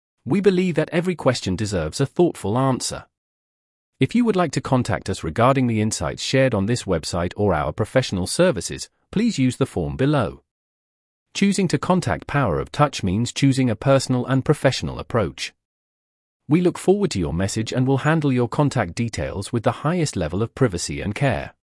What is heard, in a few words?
text to voice; de